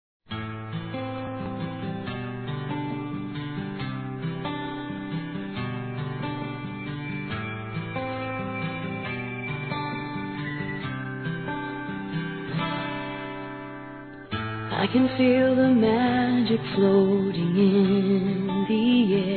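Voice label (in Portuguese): TERNURA, Telemensagens, Românticas masculino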